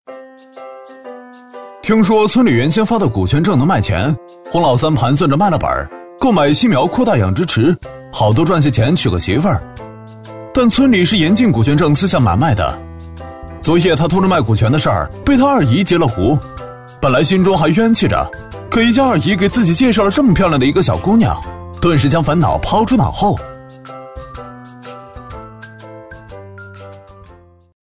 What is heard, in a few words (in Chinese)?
男 轻松动画解说; 乡领股权